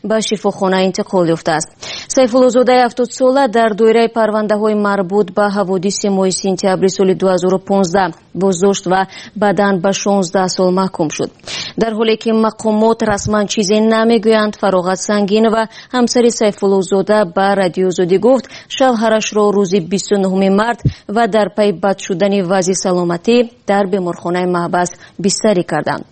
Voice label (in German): tadschikisch, Sprachen